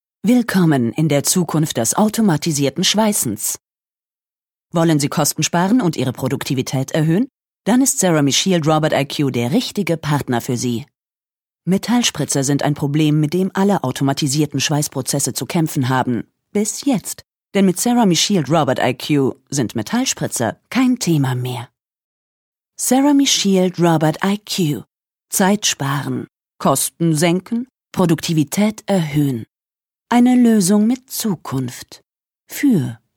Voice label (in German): Neutral